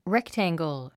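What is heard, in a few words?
"rectangle", English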